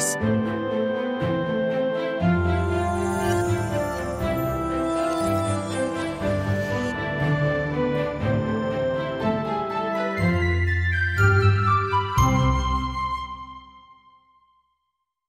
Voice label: STRONG PERSON, British voice; HP Subtitling; Vulcan